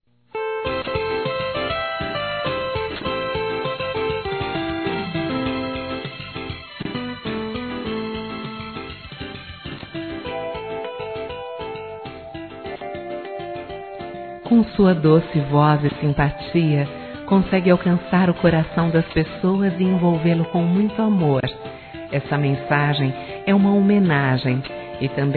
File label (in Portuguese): cantor F, telemensagens homenagens; fem